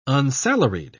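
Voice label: "unsalaried", English, British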